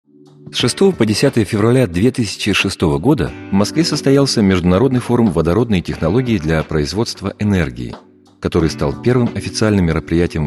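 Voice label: Russian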